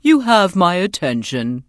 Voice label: NPC Tuskarr Female Greeting; Warrior